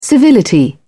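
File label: "civility", British English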